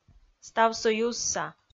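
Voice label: "ставсоюзса", Komi-Zyrian, female; audio dict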